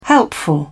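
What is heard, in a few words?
"helpful", British English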